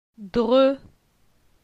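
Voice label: "Dre", French; phonetique; la syllabe e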